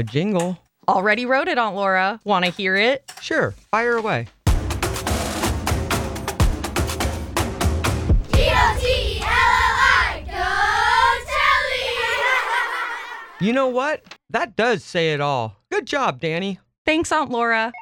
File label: Plumbing Radio Ad; Gotelli Plumbing